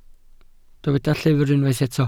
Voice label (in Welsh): "llyfr" Welsh